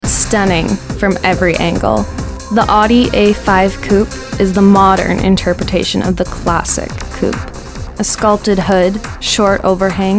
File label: Commercial VO